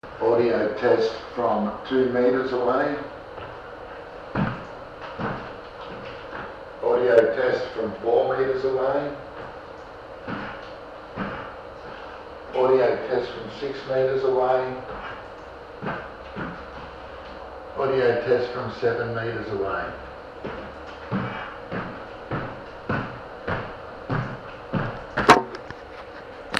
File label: Covert Pen Voice Recorder Sample Audio